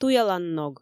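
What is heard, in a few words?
"туяланног", Komi, female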